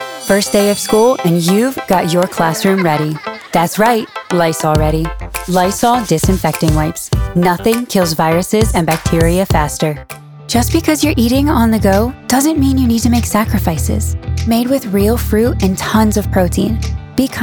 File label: Female voice actor